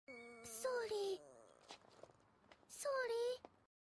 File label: sorry anime girl cute voice